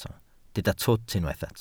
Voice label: "twt", Welsh